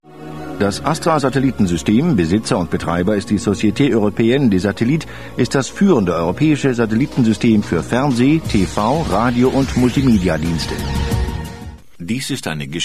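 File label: German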